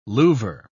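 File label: "louver", British English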